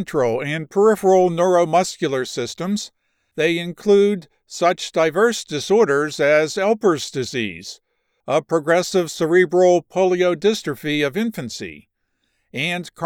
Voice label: Med mitochondrial encephalomyopathies, science medical narration